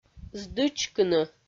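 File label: "здычкыны", Komi, female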